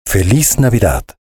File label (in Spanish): cuña feliz navidad - voz en frio